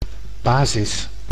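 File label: "basis", Dutch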